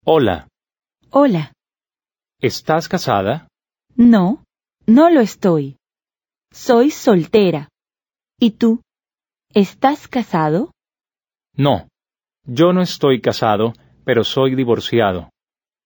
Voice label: Dialogue, Spanish